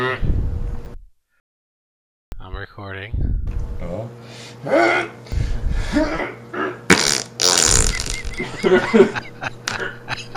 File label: ts3 recording